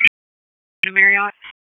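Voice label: Police Dispatch, p25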